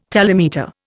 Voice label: "telemeter", Indian